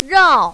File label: "rao4", mandarin, female